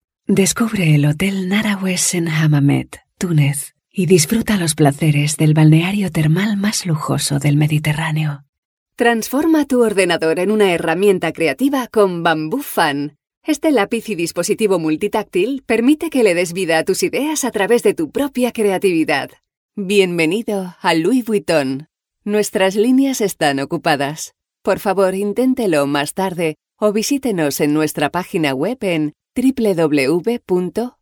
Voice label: es commercial